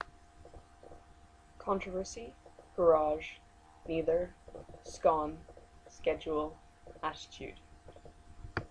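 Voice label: brussels belgium female, accent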